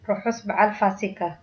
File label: "easter", tigrinya